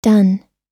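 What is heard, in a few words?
"done", American English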